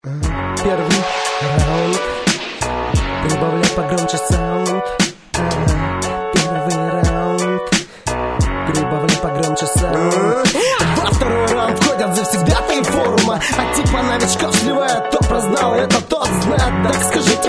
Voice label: rap